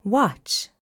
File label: "watch", spanish